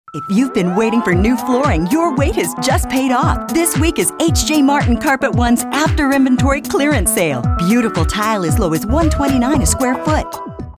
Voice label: hard sell